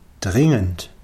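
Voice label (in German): "dringend", German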